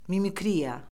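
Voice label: "mimikriya", Azerbaijani; Orfoqrafiya Lüğəti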